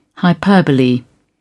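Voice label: "hyperbole", British English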